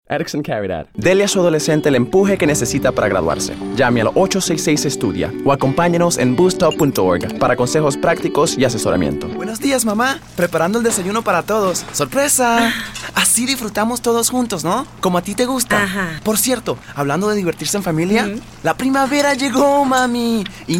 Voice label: Spanish; Commercial Demo; Voiceover